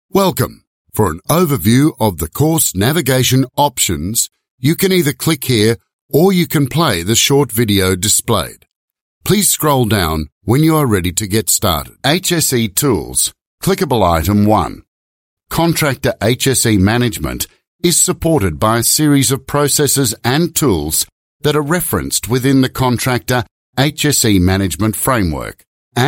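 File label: Australian English e-learning voice sample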